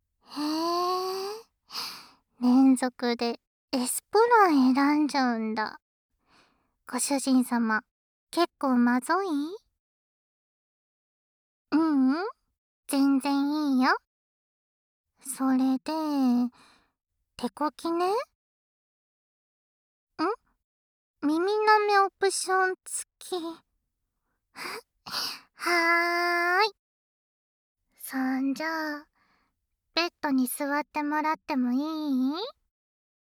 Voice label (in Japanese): 耳舐め手コキで言葉責め, ASMR; 左右反転ver; 射精ガマンを強要するSメイド